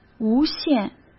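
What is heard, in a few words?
"无限", Mandarin Chinese; chinese flashcards; hsk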